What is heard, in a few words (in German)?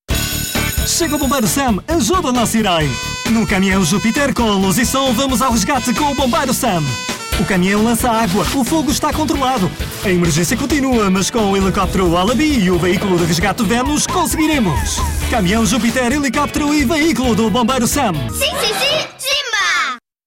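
Portuguese, Fremdtake Dynamisch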